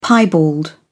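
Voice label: "piebald", British English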